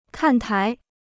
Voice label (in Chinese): "看台", Chinese